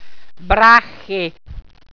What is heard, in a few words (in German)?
"brache", walser